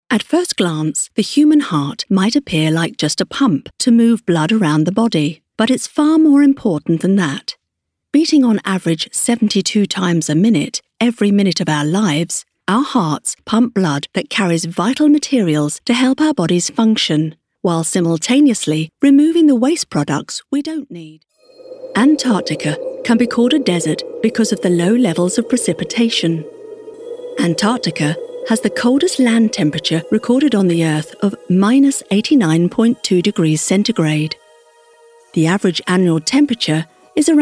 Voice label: Corporate voice reel